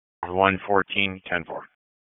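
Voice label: Police Southwest District Dispatch; Raleigh; p25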